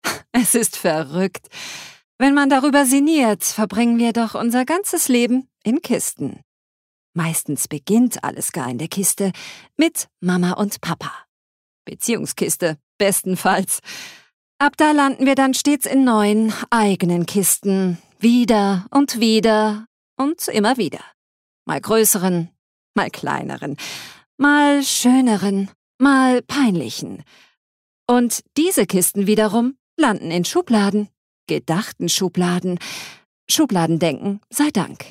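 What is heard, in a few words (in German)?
Schauspiel, Variabel; Kisten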